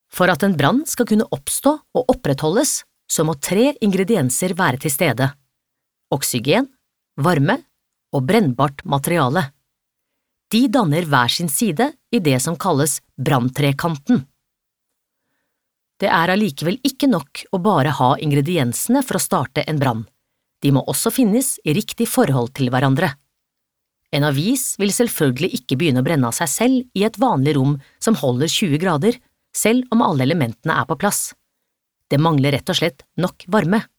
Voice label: no elæring

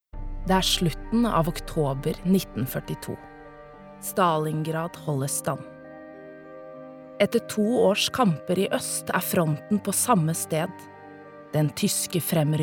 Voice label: no dokumentar

